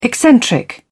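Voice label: "eccentric", British English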